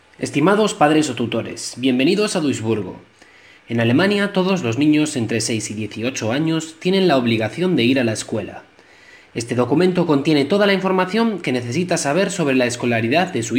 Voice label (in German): Sprachausgabe Spanisch